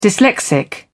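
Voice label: "dyslexic", British English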